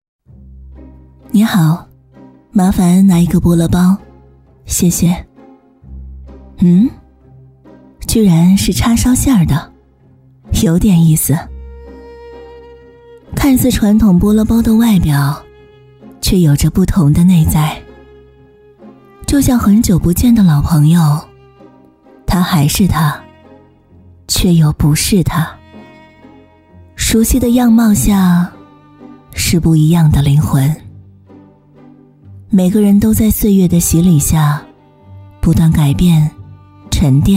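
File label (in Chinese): 广告 女 走心; 王家卫风格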